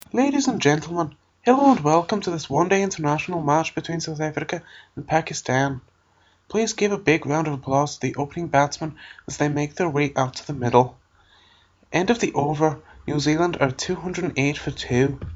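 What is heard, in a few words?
generic irishman, commentary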